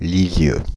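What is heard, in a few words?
"Paris", "Lisieux", French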